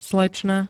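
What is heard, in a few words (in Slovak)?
"slečna", slovenské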